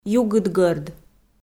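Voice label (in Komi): "югыдгӧрд", Komi, female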